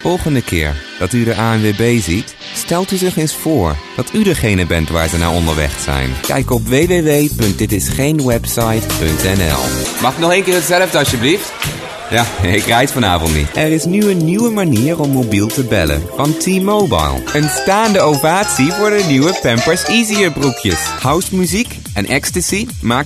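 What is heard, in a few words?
Dutch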